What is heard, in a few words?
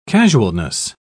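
"casualness", British English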